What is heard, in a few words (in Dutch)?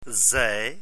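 "zei", Dutch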